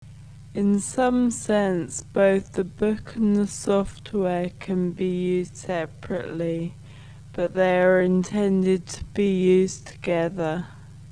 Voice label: slowed